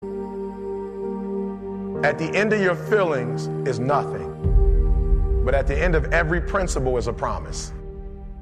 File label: motivational video; unbroken